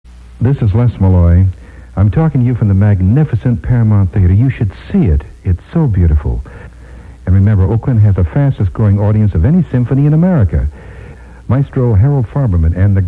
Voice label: Oakland Symphony Spot